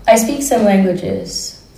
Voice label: interviewee female